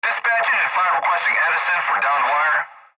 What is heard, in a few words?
Fire Dispatch Male- Dispatch Engine 5 Requesting Edison For Downed Wire; Radio Dispatch; Emergency; Fire Dispatch Radio Interior Truck Perspective